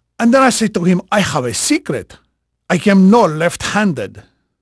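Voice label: Spanish male, voice; Pirates Of The Caribbean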